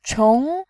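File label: "qiong", Mandarin Chinese, second tone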